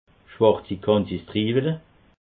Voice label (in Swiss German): "schwarzi", alsace